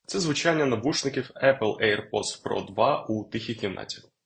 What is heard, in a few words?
apple airpods pro 2 ua